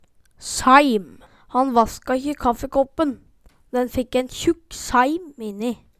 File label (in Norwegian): "saeim", Numedalsmål